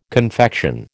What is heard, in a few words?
"confection", American